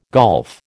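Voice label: "golf", American English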